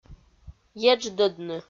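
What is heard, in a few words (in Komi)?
"едждӧдны", Komi, female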